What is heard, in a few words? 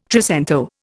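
"trecento", Indian English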